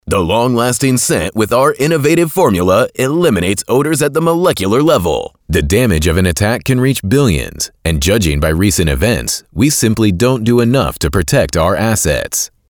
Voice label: 外籍英男, 干音, 大气力度

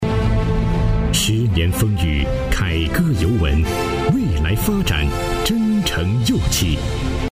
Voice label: 宣传片; 十年风雨

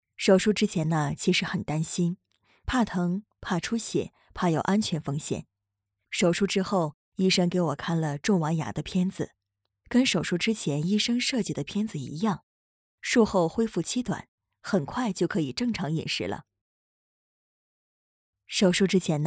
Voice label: 女 角色类 中老年; 迪凯尔

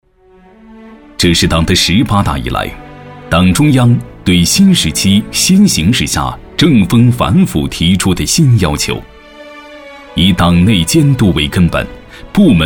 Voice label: 男 专题 党政 党建反腐; 怀化

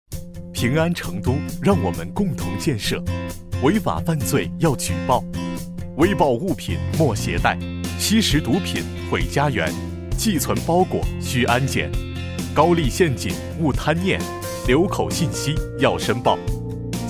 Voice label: 公益广告; 成都平安官方公益广告

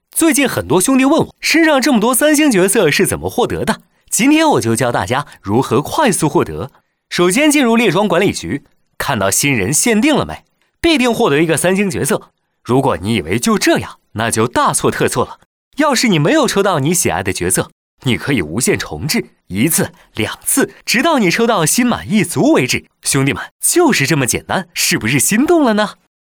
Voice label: 男 游戏解说; 列装管理局

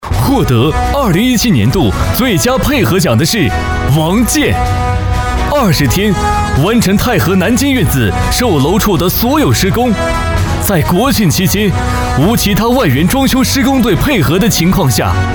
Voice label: 男 颁奖; 慧科技

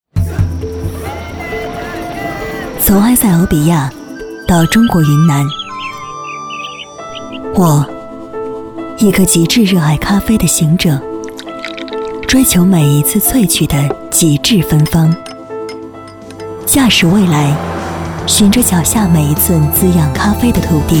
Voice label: 女 电台广告 咖啡; NIO-Radio咖啡旅神

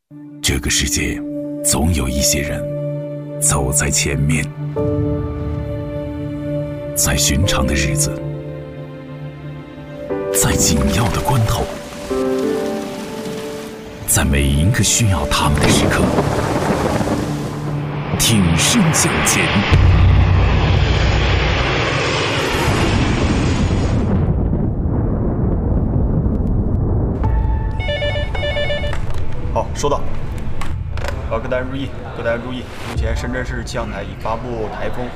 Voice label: 男 旁白 党员

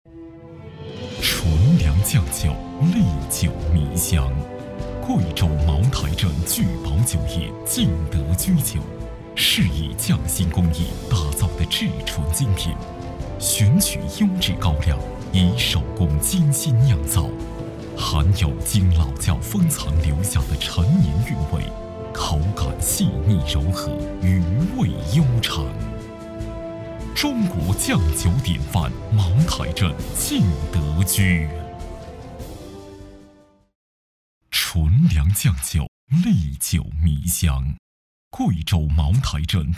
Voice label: 男 广告 酒类; 茅台镇

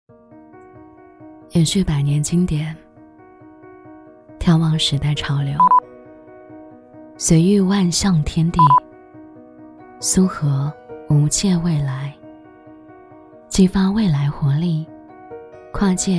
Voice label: 女 专题 配音; 苏河湾配音

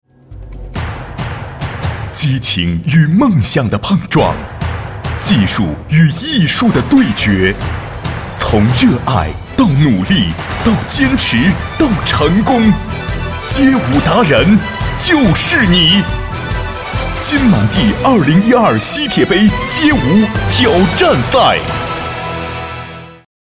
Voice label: 男 广告, 国语男声配音, 大气浑厚; 西铁杯街舞挑战赛

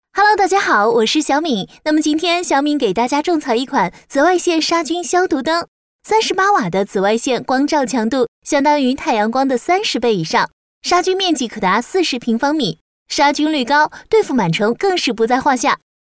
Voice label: 女 自然解说 紫外线消毒

